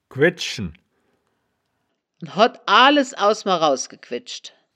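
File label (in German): "quedschen", Wittlicher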